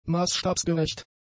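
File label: "maßstabsgerecht", German; adjectives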